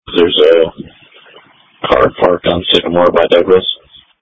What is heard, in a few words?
Police Tactical Encrypted